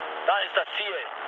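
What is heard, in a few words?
German near waypoint mood low, speech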